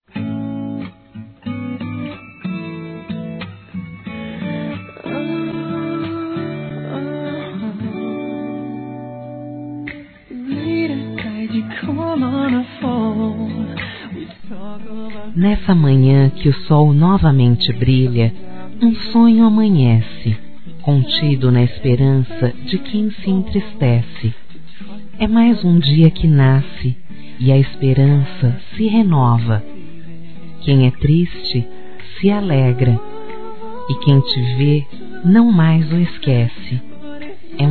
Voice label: SAUDADES F; Dia Dos namorados; fem; Telemensagens 2017

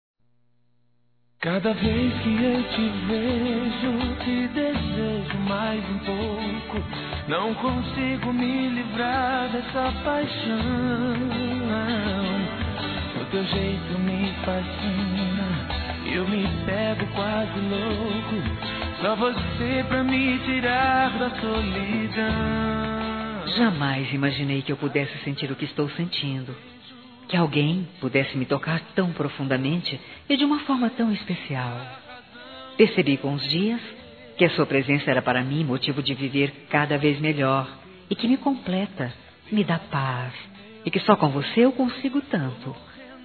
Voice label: Aniversário Sertanejo Casamneto Esposa Feminino; ANIVERSARIO romantico

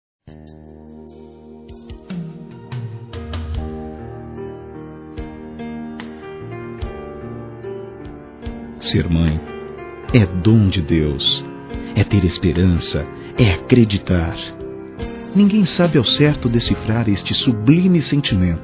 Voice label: HOMENAGEM A MÃE EM GERAL, Masc; Dia das Mães; Telemensagens 2017

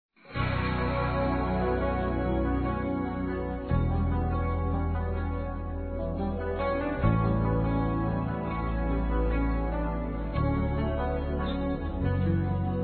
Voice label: AMIGA F; Telemensagens 2017

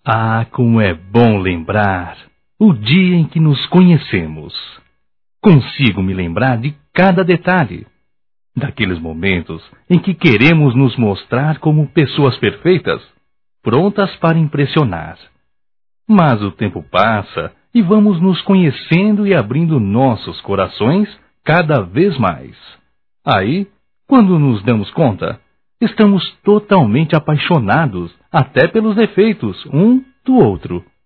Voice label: Pegadas na Areia Masculino, Só Voz; Telemensagens; Telemensagens 2017

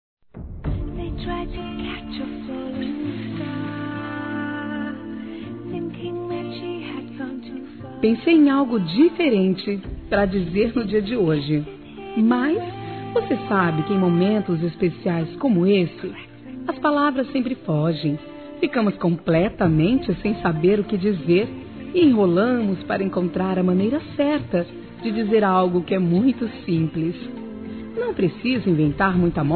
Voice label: Aniversário Primo (a) Feminino; Aniversários; Aniv Familiar

